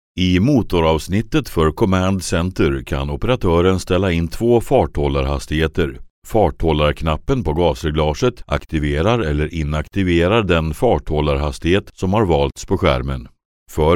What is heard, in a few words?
swedish voiceover locutor sueco